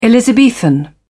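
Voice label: "elizabethan", British English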